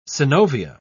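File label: "synovia", British English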